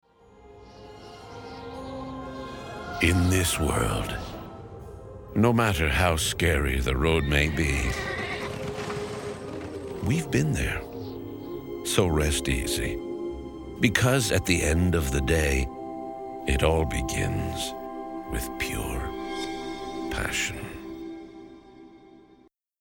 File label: voice demo